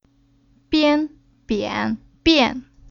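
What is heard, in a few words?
"Bian", Chinese syllable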